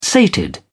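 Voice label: "sated", British English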